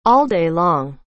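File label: "all day long", English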